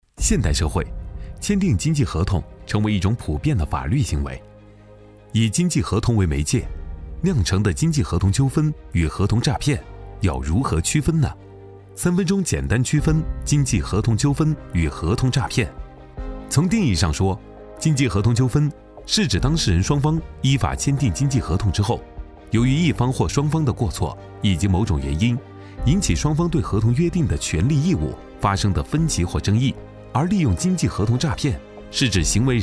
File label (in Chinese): 男 商务宣传片风格